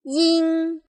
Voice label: "yin", pinyin